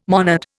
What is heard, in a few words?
"monad", Indian